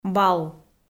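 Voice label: "балл", Komi, female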